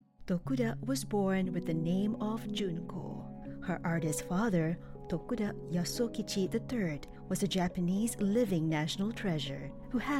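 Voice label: Documentary Film Narration Neutral English Accent, female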